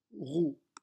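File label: "roue", French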